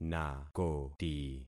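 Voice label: "nakoti", concatenated syllables; Affixing experiment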